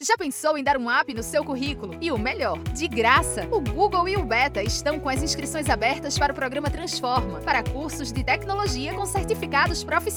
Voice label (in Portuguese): Spot, pernambucano; Google + Betta